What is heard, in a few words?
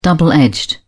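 "double edged", British English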